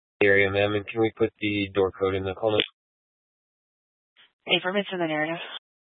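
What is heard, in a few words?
Police Dispatch, Scanner audio; Police 1 Dispatch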